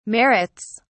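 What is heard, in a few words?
"merits", British English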